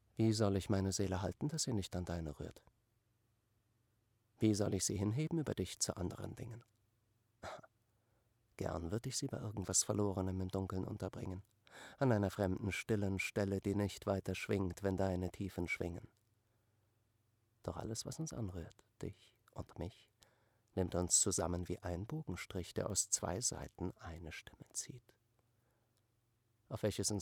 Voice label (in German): Sprecher Emotion Psycho